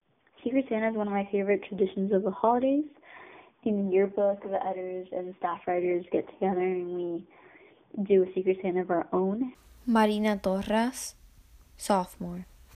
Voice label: Audio message